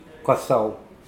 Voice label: "koasoa", Mokilese

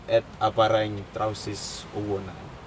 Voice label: he is wearing his trousers backwards, Aneityum

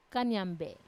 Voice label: "kanyambe", large land turtle, wamesa; k.o. large land turtle

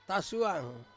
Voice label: "suang", to carry hanging from ones head, Ansus

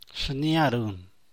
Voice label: "shnia run", San Mateo Macuilxochitl Zapotec; bright red; (Spanish) rojo fuerte

